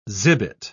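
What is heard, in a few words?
"zibet", British English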